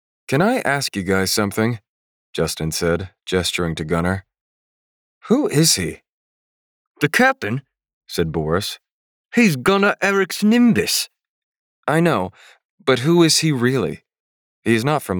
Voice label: fantasy multiple characters dialogue